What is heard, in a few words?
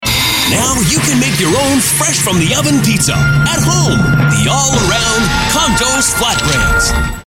hard sell energy; Kontos Pizza